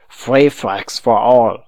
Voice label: taunt, voice